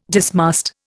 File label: "dismast", Indian